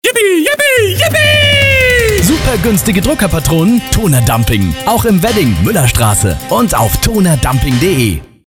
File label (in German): spot; tonerdumping wedding